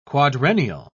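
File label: "quadrennial", English, British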